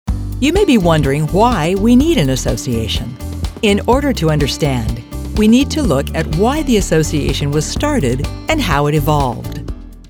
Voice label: Narration